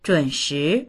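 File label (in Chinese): "zhun shi" Chinese; 准时; 時間どおりに、時間に厳格な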